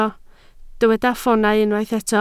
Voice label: "ffoonau", Welsh